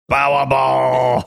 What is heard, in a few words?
saying "powerball" funny